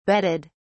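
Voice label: "bedded", English, British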